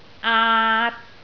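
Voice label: "aat3", Cantonese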